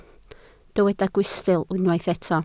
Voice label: "gwystl", Welsh

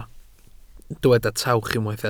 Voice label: "tawch", Welsh

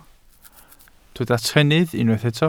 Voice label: "trennydd", Welsh

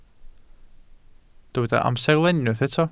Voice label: "amserlen", Welsh

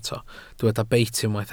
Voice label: "beit", Welsh

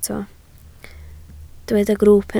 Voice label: "grwwp", Welsh

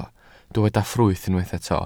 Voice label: "ffrwyth", Welsh

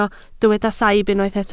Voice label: "saib", Welsh

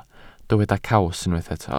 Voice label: "caws", welsh

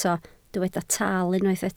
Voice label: "taal", Welsh

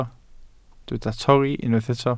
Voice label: "torri", Welsh

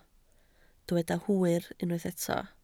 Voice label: "hwyr", Welsh

